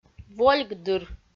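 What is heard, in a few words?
"волькдыр", Komi, female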